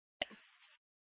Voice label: Fire Departments Mesa Simulcast; TOPAZ